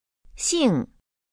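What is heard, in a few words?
"xing", Chinese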